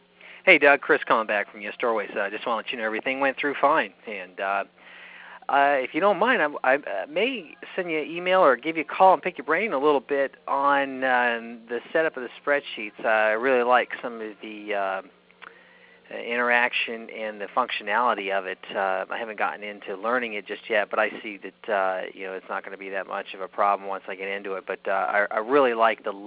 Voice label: testimonial phone message